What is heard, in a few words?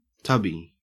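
"tubby", Australian English; pronunciations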